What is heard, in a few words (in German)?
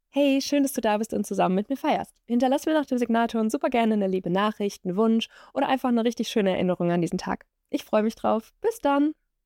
Bandansage Geburtstag